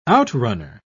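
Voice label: "outrunner", British English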